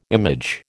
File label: "image", American